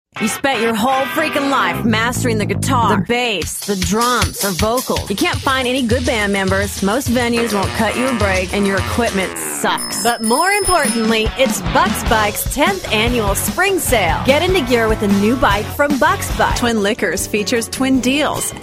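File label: young, radio commercial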